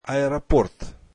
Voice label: airport, russian; аэропорт [aeroport]

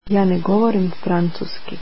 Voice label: I dont speak French, croatian

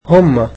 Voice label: "they", egyptian

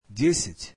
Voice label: ten, russian; десять [dyesyatʲ]

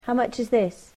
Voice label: "how much is this", English

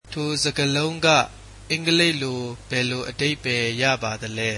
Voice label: What does that word mean in English, burmese

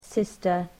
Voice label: "sister", English

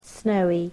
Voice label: "snowy", english

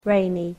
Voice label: "rainy", english

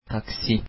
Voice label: "taxi", Turkish

